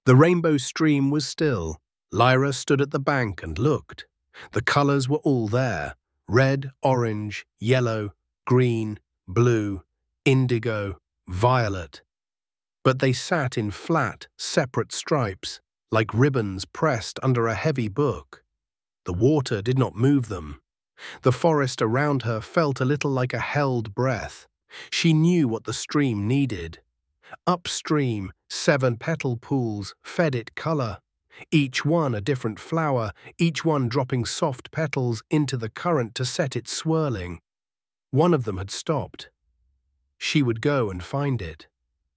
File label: narration; daily bedtime story; rainbow unicorn